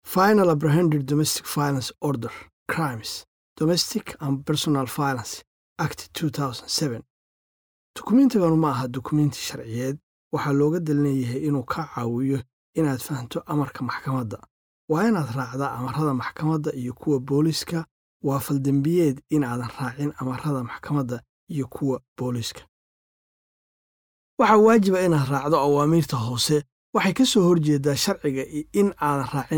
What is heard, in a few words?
introduction somali